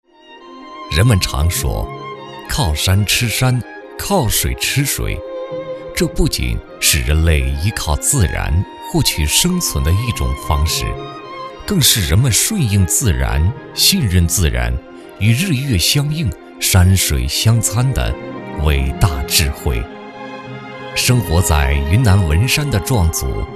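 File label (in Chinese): 男国大音-人文类纪录片-（沉稳-大气）; 天地水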